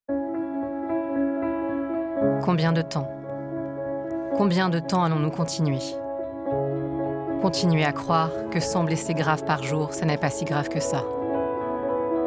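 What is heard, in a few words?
French, female, explainer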